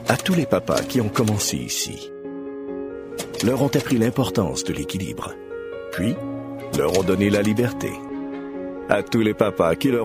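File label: Canadian French; French-Canadian; Male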